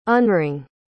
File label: "unring", pronounce